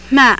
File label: burmese